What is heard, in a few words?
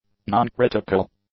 "noncritical", english; adjectives